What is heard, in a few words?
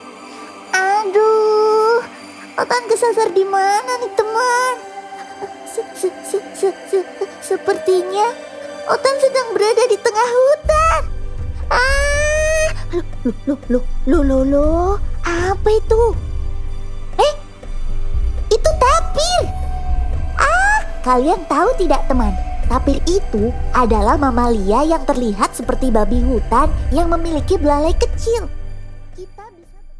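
Indonesian character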